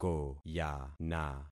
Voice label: "koyana", concat syllables; Affixing experiment